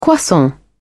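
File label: "croissant", British English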